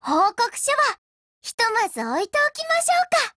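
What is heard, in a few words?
WIN, Japanese; RPK203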